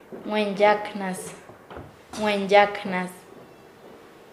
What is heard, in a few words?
"pescador", Nasa Yuwe; paez